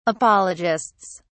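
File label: "apologists", British English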